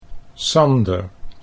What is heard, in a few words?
"Sander" Dutch